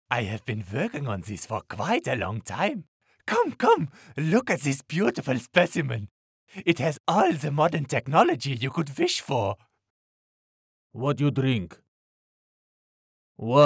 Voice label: English video games